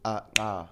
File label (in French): Clic palato alveolaire sourd; palato-alvéolaire [ǂ] (autre claquement); claquement de langue; Clic (phonétique)